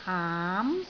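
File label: "aam2", Cantonese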